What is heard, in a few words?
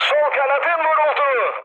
left wing, mood med, speech tur; War Thunder